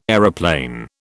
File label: "aeroplane", British